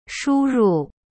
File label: "输入 shu ru", Chinese